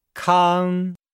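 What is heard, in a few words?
"kang", Chinese; Nihao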